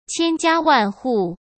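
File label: "千家万户", Mandarin Chinese; chinese-flashcards; hsk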